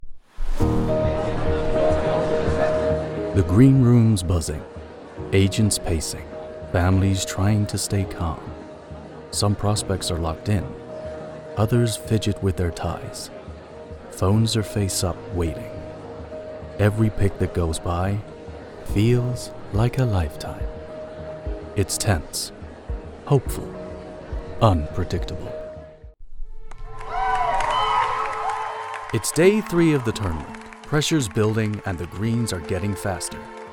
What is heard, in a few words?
Sports Documentary; British actor; residing in Canada